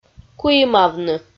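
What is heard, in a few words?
"куимавны", Komi, female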